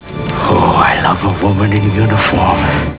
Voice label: WOMAN